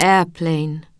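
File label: "airplane", British English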